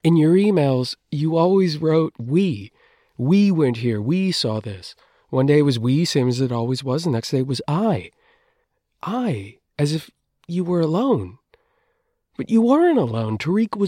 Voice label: Radio Drama

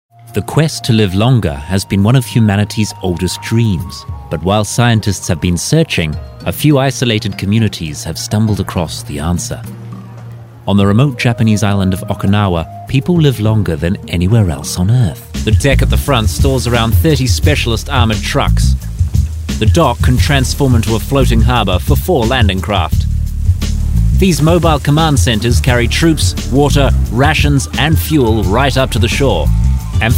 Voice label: Narration

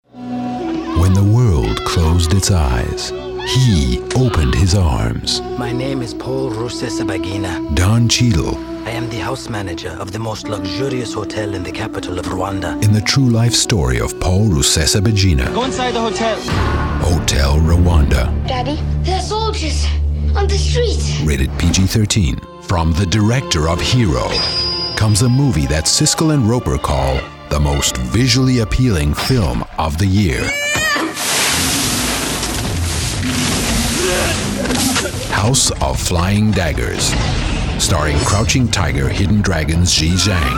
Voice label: Trailer

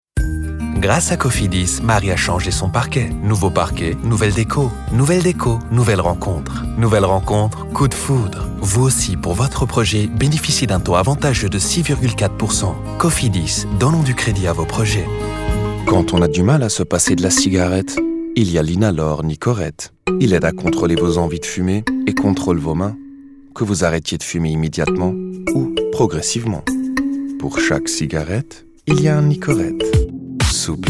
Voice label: French-Belgian Commercial

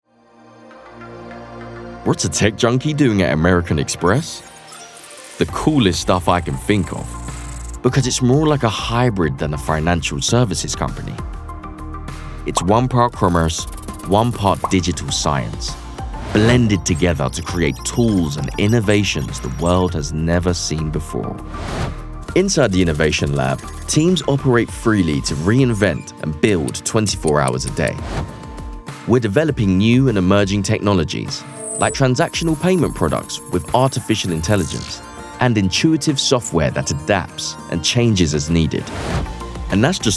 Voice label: Corporate

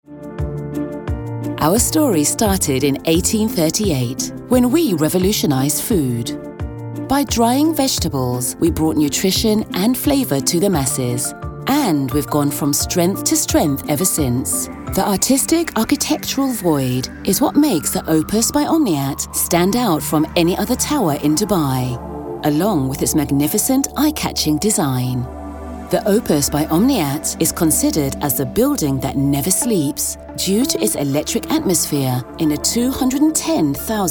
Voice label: Narrative

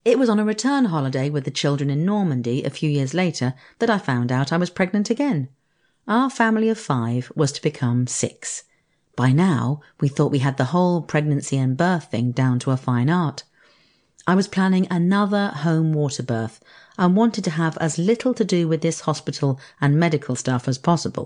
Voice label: Audiobook

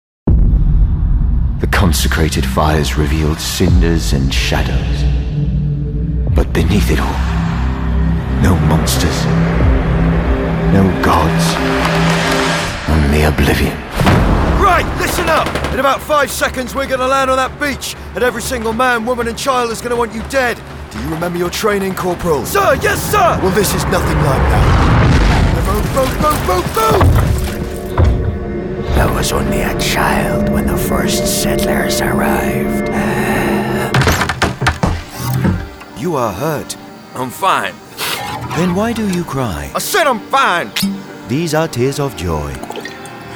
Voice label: Gaming Home Studio

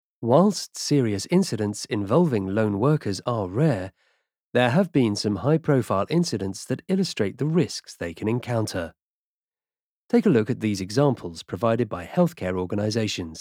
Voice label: Corporate e Learning

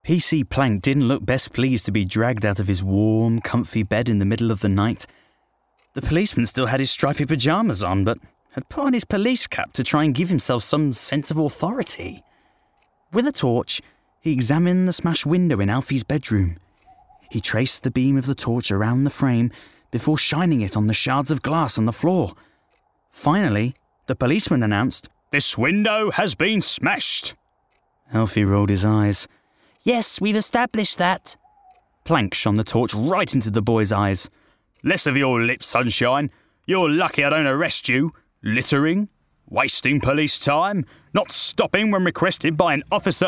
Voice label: Audiobook

